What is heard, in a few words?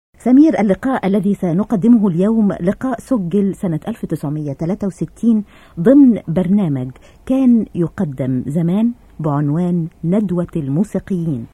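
arab female voice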